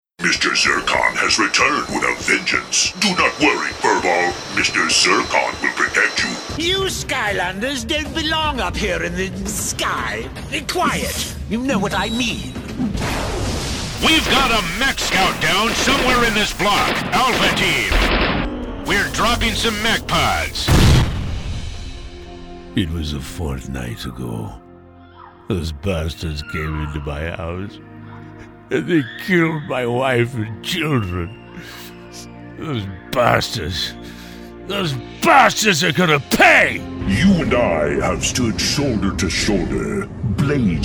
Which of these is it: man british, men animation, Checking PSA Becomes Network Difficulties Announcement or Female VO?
men animation